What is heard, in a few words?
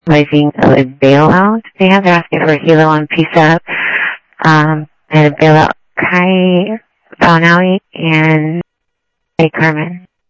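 Sheriff East County, scanner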